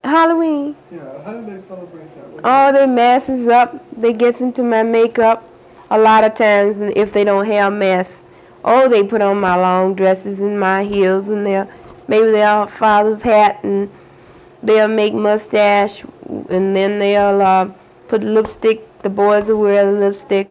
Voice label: Conversation with 31 year old black female Detroit Michigan, american english dialect